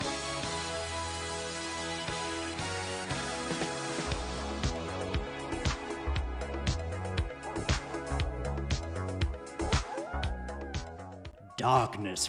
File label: Dramatic Reading